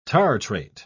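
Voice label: "tartrate", British English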